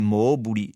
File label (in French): "mobuli", Rocca (sud)